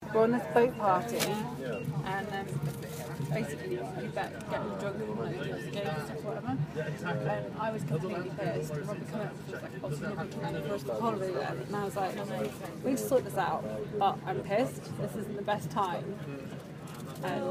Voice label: woman talking about a massive screaming match on the train just outside Paddington; station